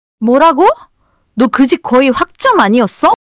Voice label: anger; monologue